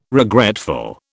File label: "regretful", British